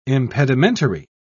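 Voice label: "impedimentary", British English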